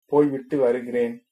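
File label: goodbye, Tamil, male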